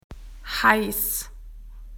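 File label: "hot", Australian English; PictureDictionary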